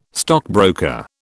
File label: "stockbroker", British